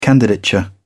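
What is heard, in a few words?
"candidature", British English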